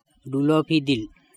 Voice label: "DulOpHidill", Andamanese; full moon